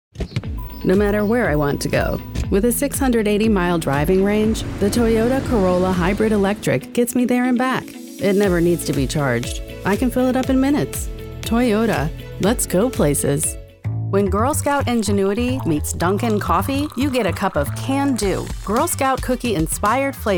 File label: Commercial